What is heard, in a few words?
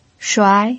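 "shuai", Mandarin Chinese pinyin, second tone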